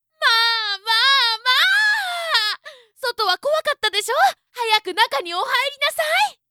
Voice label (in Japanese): zyosei